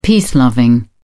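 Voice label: "peace loving", British English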